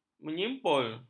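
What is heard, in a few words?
"menyimpul", Malay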